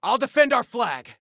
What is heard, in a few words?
Self Defend Flag, Soldier Male; Soldier Male 01